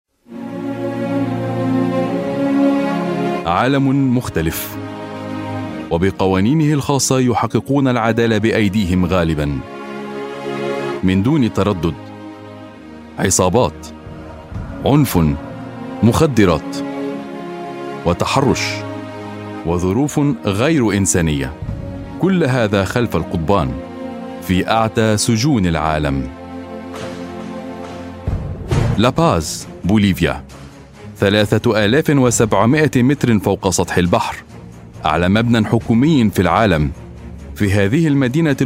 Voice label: Arabic voice documentary